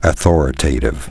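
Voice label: "authoritative", spoken word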